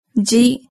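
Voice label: "dji", Japanese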